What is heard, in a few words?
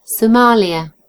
"somalia", en GB; gcompris